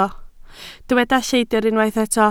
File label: "lleidr", Welsh